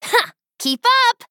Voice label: Female Human Attack

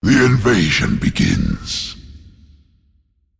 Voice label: Male Demon

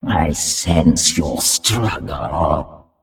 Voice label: Female Nerubian Play

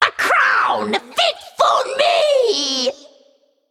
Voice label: Female Naga Play

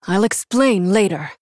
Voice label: Female Human; Jaina; Mission5 Exchange

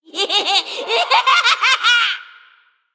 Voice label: Female Gnome Attack

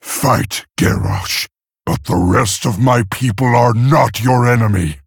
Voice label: Male Orc; Thrall; Story Jaina Mission7 Exchange B

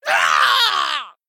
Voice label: Female Troll Death

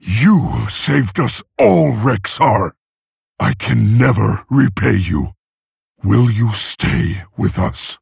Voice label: Male Orc Victory; Thrall; Story Rexxar Mission7

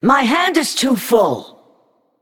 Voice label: Female Naga ERROR HAND FULL

